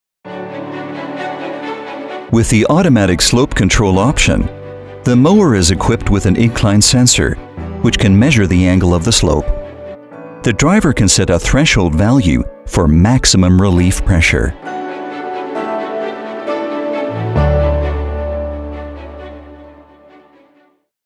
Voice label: claas tractors eng